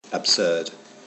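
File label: "absurd", British English, male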